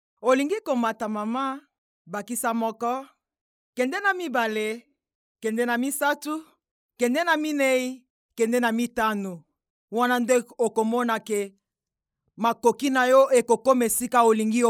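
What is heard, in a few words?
Lingala, female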